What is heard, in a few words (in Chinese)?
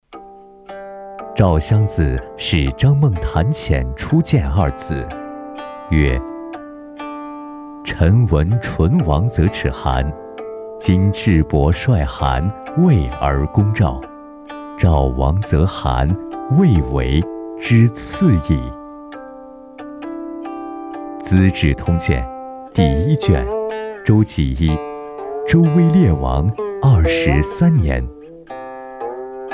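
课件讲解磁性有韵味; 资治通鉴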